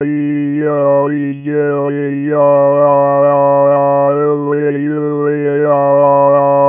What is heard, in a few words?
resynth Speech